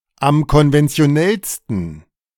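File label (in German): "am konventionellsten", German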